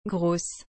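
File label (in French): "grosse", French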